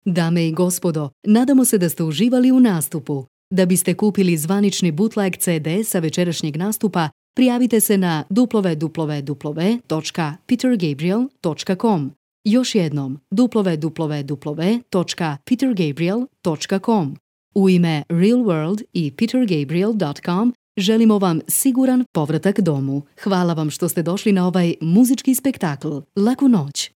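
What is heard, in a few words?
Croatian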